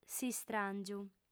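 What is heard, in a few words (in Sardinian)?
"s'istràngiu", female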